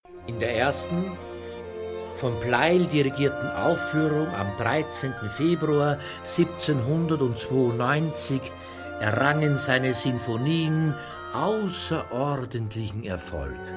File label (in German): Hörbuch; pleyel